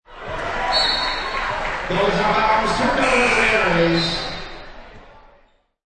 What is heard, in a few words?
Basketball Announcer Speaking, Indoor sports: Basketball game; Announcer speaking, with some crowd noise; Lynne Music